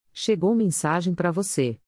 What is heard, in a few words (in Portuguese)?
chegou mensagem pra voce ringtone